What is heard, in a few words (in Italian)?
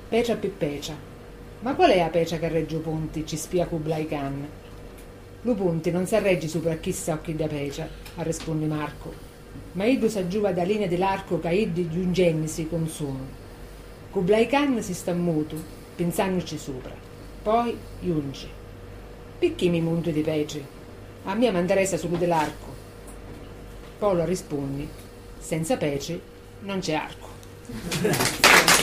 Epilogo catanese